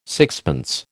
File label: "sixpence", American